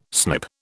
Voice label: "snip", British